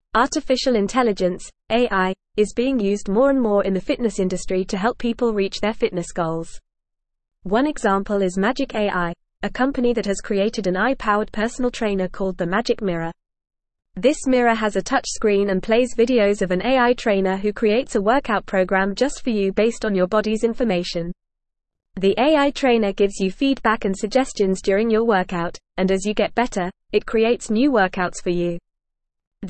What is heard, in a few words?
English FAST Reading; AI in Fitness Personalized Training or Human Connec; English Newsroom